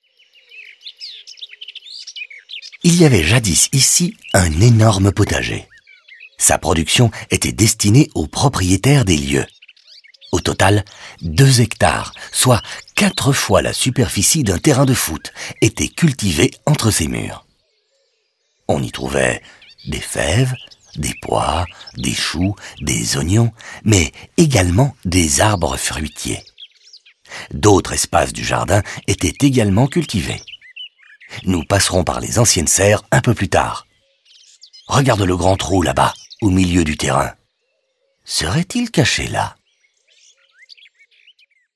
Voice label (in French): audioguide fr enfant; modave